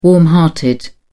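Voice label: "warm hearted", English, British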